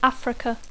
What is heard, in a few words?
"Africa", English